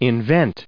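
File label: "inventor", US English pronunciation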